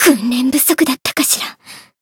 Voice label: win barely, jp; renri

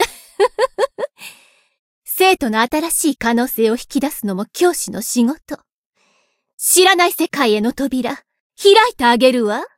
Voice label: story, jp; sherinu

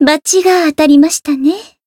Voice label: win, jp; rosetta

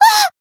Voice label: damaged jp; mana

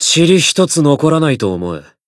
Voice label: Voice encount, jp

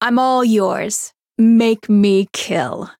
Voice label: Voice chant; suzette

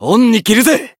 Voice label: healed, jp

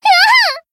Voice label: Voice damaged, jp; tsukiha

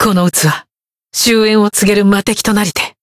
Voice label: chant jp; clarte

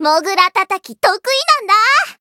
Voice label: Voice chant jp; may

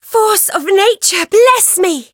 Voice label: Voice variable chant; veina

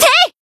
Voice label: attack jp; hisumena

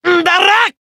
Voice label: attack, jp

